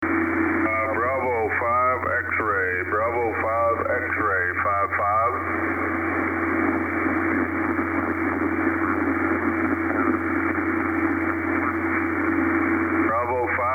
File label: 17m ssb; Asia